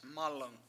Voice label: "mAlung", washo; acorn

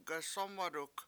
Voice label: bring it over, Washo; gasOmaduk

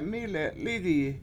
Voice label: mI7le7 lIIgiyi I Saw Them All, Washo

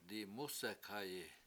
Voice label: I drown so, Washo; dimUsek7hayi

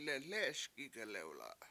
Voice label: leLE7eS gig1lEwla7 I chase so around, Washo

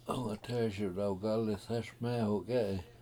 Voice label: How Old Is The Boy, washo; hungatEESi dewgAlis hEES mEEhu k7E7i

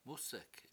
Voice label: "mUsek7", washo; to drown

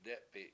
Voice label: "dE7pi7" to dent, Washo

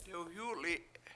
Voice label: "dewYUli7" ghost, Washo

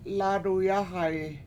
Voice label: "lAAdu yAhayi", washo; My Hands Hurt

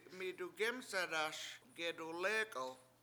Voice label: "midugEmset7aS gedulEk1l", washo; I help you cook

